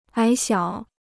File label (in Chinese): "矮小", Chinese